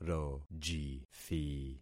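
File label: "rojithi", concatenated syllables; Affixing experiment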